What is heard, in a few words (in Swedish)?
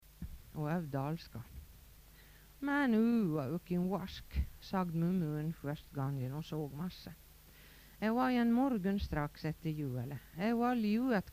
älvdalskan